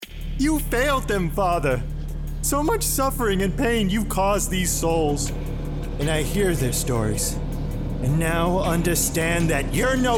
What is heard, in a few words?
Character